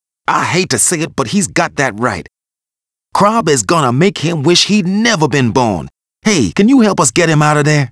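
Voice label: aim British; arthur and the revenge of maltazard; Wii; Aim Uk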